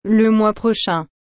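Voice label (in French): "le mois prochain", French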